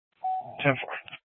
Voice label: Sheriff, scanner; Shrf Lakes